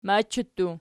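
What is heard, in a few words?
"machattu", nominative, Archi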